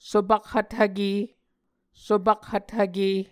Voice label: "sopakhvthvke", Muscogee